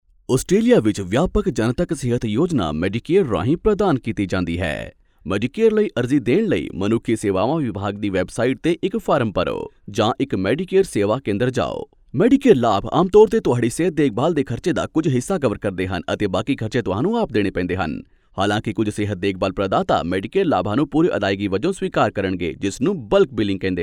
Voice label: Punjabi voice sample